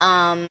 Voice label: "um", Voice; ooh ahh